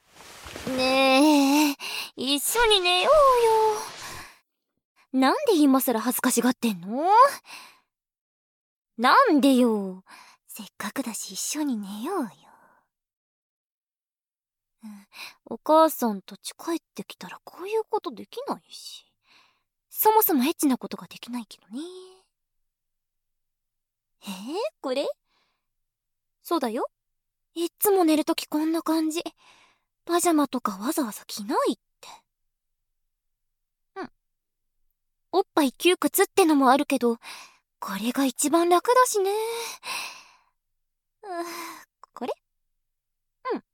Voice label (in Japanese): 照れ隠しピロートーク, ASMR; 水音系SEなし